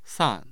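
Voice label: "san4", Mandarin Chinese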